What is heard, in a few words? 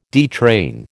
"detrain", American